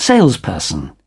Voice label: "Salesperson", UK